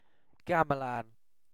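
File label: "gamelan", British English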